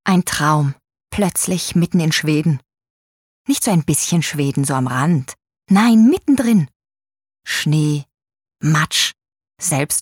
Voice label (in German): Schauspiel Neutral